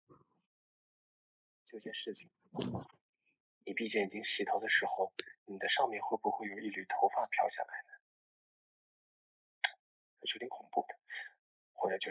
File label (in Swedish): Naturlig AI-röst för dagliga vloggar och livsstilsinnehåll; Perfekt för livsstilsskapare, resevloggar och personligt berättande